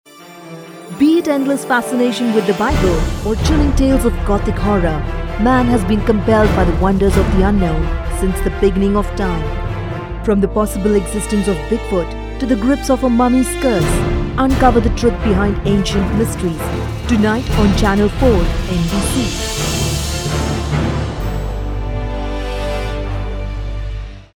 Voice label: promo english

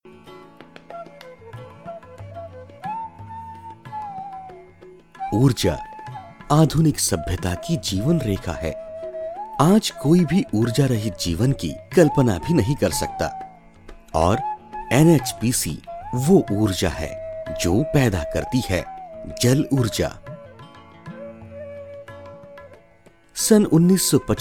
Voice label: corporate hindi

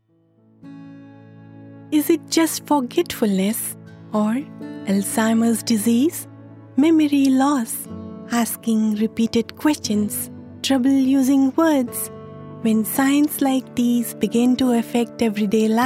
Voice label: English Commercial Alzheimers

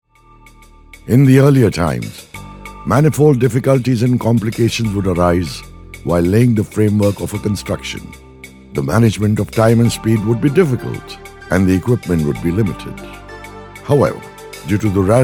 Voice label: Eng

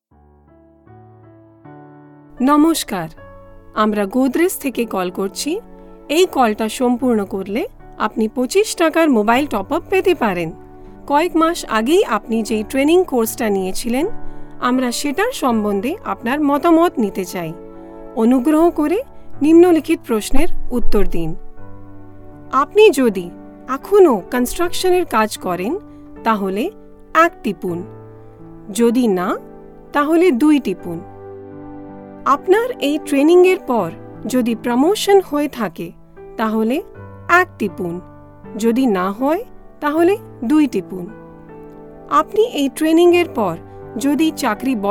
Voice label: IVR bengali

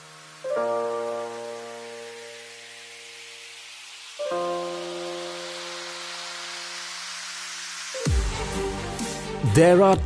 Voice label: Corp AV English; Angel Broking

